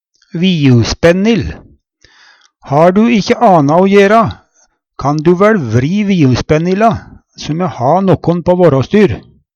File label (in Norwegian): "viuspennil", Numedalsmål; Ha du ikkje anna o jera, kan du væL vri viuspennilla so me ha nokon på vårås